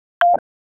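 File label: Police District Dispatch, p25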